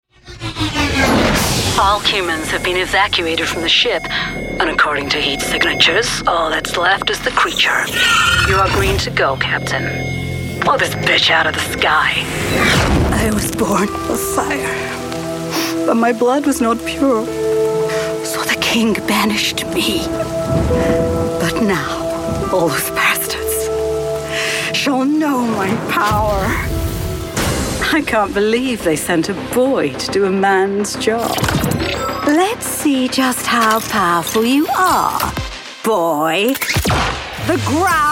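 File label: gaming demo, Warm Sexy Versatile Female Voice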